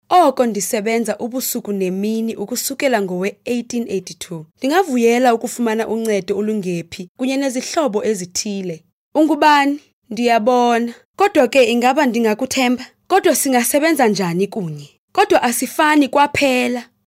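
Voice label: Xhosa, voice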